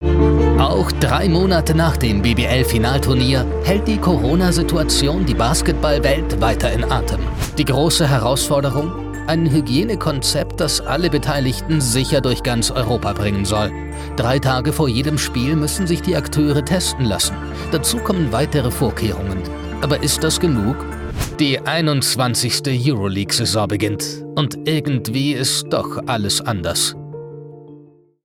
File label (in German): Kommentar Euroleague; corona